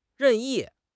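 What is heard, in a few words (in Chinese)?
"任意", Chinese; HSK6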